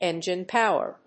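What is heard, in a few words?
"ENGINE POWER", English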